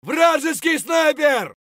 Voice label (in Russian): "vrajeskiy sniper" scream; tarkov chvk vrajeskiy sniper